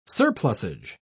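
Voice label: "surplusage", British English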